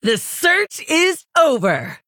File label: Female Orc Emote Greetings